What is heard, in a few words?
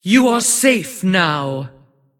Female Titan Play